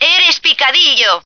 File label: useless, female